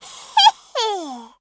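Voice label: story, Japanese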